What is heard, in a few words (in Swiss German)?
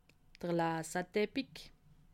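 "laaseteppich", Alsatian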